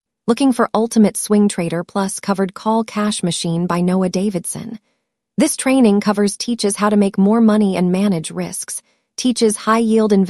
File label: podcast